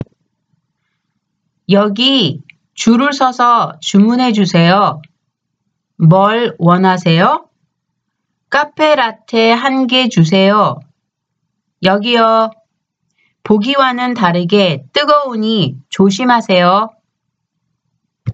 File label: conversation; K-Pop Volume 3